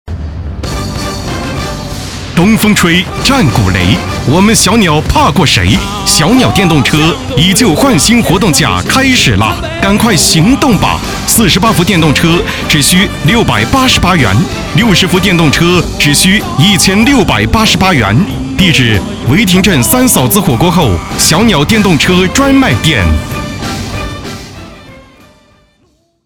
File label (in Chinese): 促销广告, 电动车, 动感激情; 小鸟电动车